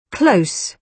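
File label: "close", British English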